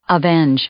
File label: "avenge", English; verb